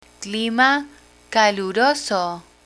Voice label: "clima caluroso", Spanish; learn spanish

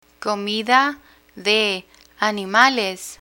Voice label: "comida de animales", Spanish; learn spanish

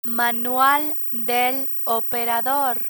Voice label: "manual del operador", Spanish

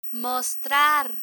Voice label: "mostrar" Spanish; learn spanish

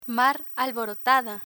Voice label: mar alborotada, Spanish; learn spanish

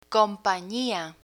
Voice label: "compania", Spanish; spanish conjunction; learn spanish

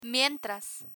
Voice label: "mientras", Spanish; learn spanish

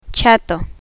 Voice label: "chato", Spanish; spanish alphabet; learn spanish

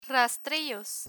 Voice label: "rastrillos", Spanish

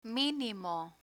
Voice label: "minimo" Spanish

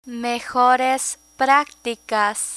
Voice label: "mejores practicas", Spanish

